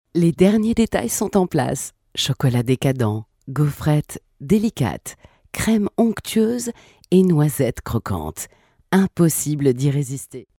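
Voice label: French, female, commercial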